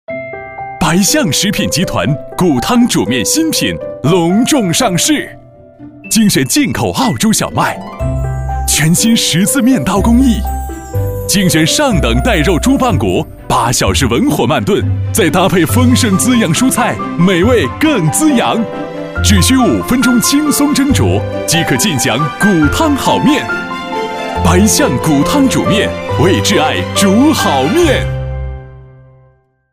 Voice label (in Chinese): 广告, 食品饮料, 亲切; 白象骨汤面